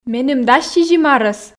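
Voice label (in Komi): меным дас сизим арӧс, Komi, female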